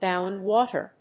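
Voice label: "Bound water", English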